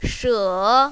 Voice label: "she3", mandarin, female